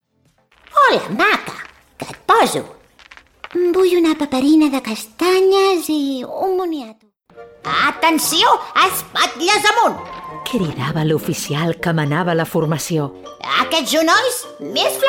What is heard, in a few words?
catalan animation